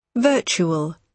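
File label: "virtual", British English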